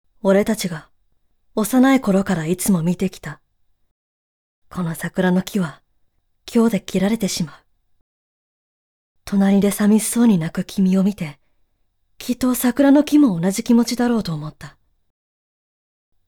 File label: boy